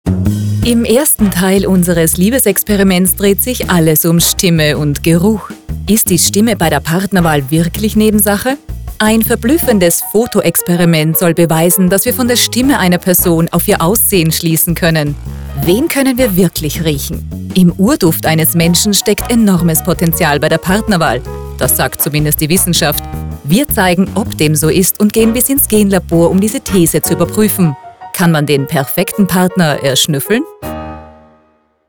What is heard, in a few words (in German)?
Reportage